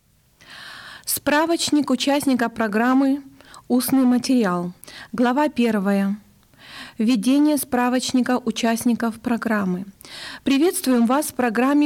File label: Russian; Member Handbook